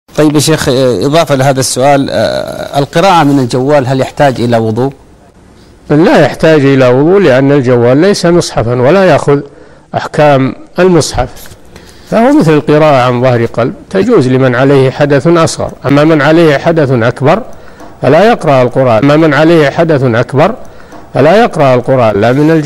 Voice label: quran wudhu